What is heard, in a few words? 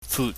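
"fut", Cantonese, low level tone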